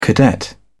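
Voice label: "cadet", British English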